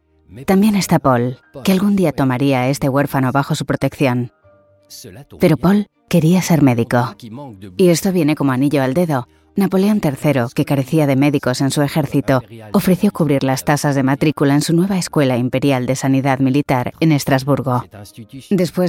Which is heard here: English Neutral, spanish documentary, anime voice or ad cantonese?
spanish documentary